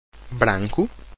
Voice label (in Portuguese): "branco", Portuguese